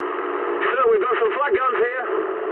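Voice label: damaged anti-aircraft mood medium, speech English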